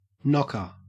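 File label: "knocker", Australian English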